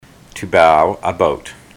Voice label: to bow about, ontario